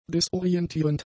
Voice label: "disorientierend", German; adjectives

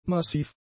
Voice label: "massiv", German; adjectives

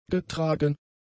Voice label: "getragen", german; adjectives

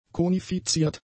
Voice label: "konifiziert", German; adjectives

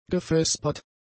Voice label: "gevespert", german; adjectives

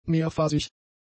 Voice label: "mehrphasig", German; adjectives

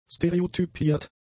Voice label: "stereotypiert", German; adjectives

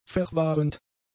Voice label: "verwahrend", German; adjectives

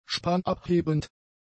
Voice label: "spanabhebend", german; adjectives

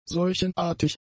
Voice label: "seuchenartig", German; adjectives

